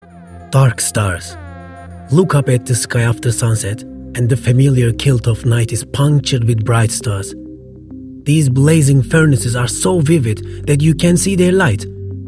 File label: Narrative Accented English; GoLocalise